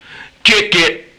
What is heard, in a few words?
Male FX Vocals; Houseworx